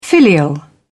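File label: "filial", English, British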